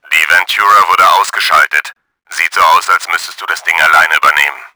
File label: CARRIER DIES, german; Battle Engine Aquila